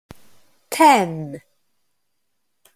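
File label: "ten", English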